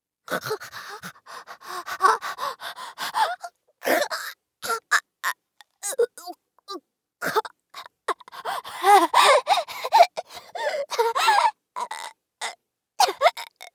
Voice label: 神経毒がまわる(呼吸困難と全身麻痺); 効果音なし

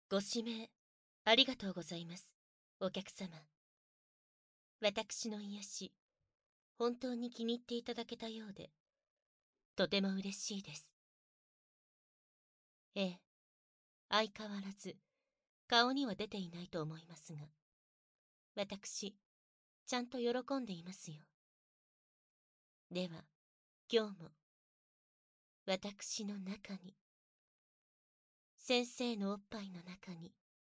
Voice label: 反響音有り, ASMR; 先生の体でキレイキレイしてあげます; SE無し

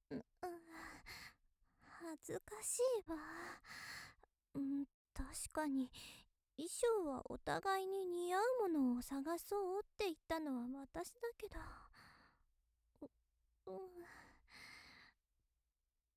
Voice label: 優しいお姉さん ハロウィンだよ

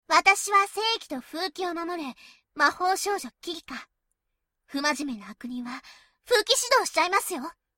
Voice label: イメージボイス; 悪堕ち前

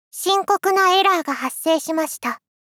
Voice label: 深刻なエラーが発生しました 少女×中音域

